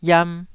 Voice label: "iam2", Taiwanese syllable

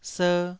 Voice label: "ser2", taiwanese

